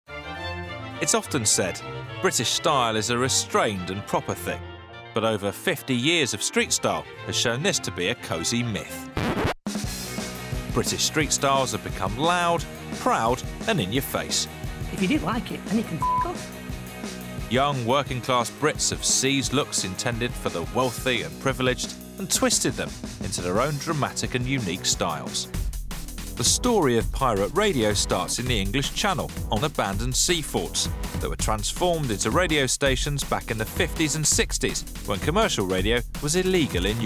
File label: Docs Montage